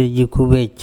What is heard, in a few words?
"erjukhubEc", andamanese; moustache